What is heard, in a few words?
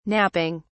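"napping", British English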